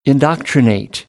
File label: "indoctrinate", American English; ɑː - ɒ